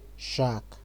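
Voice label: "shak", Nigerian Pidgin, male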